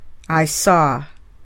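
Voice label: "I saw", English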